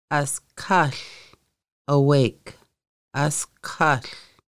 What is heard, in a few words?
Awake, conversational Lushootseed